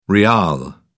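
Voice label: "rial", British English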